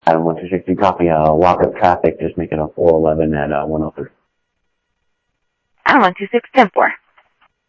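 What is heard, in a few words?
Sheriff East County, scanner audio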